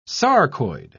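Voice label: "sarcoid", British English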